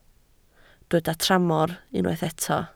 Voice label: "tramor", Welsh